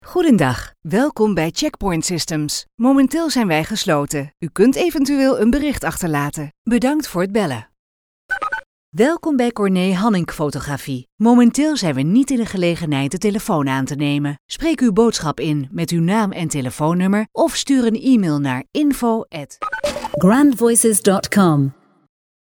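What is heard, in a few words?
DUT F, Dutch female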